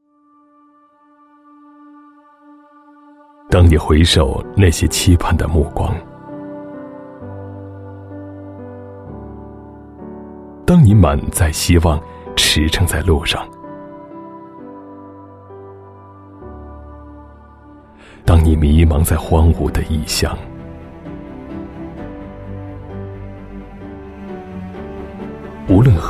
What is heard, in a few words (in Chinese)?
男 广告 深情磁性 品牌广告, 国语男声配音